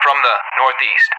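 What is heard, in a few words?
"from the NE", English speech; DCS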